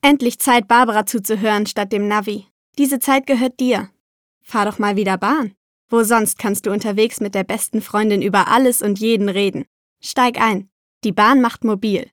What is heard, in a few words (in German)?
casting Werbung Bahn